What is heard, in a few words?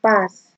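"pass", Nigerian Pidgin, female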